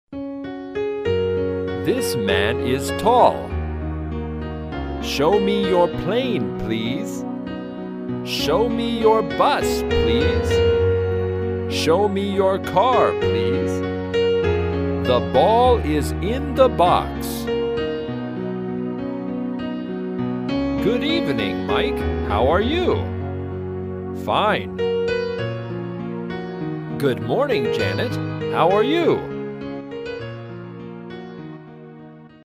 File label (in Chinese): 外籍英男, 年轻, 磁性, 课件短句